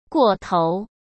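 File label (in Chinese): "过头", Mandarin Chinese; hsk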